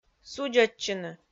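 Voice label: "судзӧдчыны", Komi-Zyrian, female; audio dict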